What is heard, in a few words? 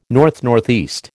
"NNE", American